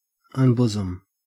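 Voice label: "unbosom", Australian English; pronunciations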